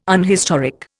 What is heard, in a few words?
"unhistoric", Indian